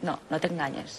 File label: Voice Acting es F